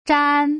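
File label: "zhan", Mandarin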